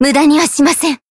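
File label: healed, Japanese; tsukiha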